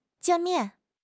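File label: "jian4 mian4", Mandarin Chinese; HSK3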